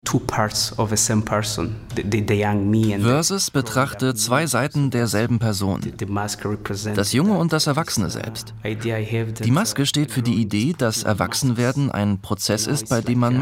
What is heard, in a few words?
Voiceover; ARTE Kultur